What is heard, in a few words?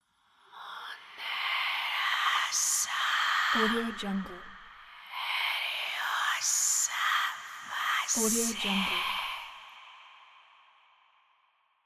whispering voices